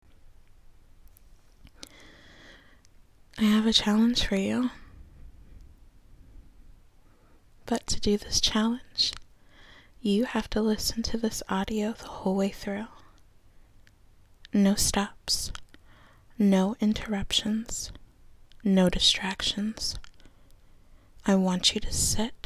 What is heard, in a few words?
F4M whispering moaning dirty tal; Don't Get Hard; challenge for you; praise; sucking noises; pet names